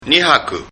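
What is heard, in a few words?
"nihaku", Japanese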